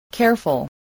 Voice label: "careful", spelling